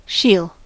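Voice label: "she'll", US English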